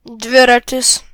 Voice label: bike, lt; gcompris

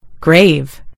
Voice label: "grave", en GB; gcompris

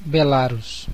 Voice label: "belarus", pt BR; gcompris; geography

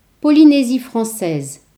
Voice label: "french polynesia", French; gcompris-data; geography

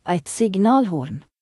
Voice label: air horn; gcompris-data

